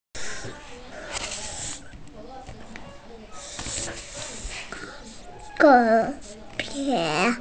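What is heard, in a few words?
"hú dié", Mandarin Chinese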